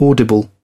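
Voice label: "audible", British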